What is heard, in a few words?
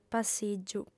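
"passìgiu", female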